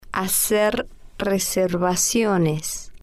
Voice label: "hacer Reservaciones", spanish